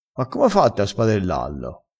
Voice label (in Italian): "spadella", vocabolario dialettale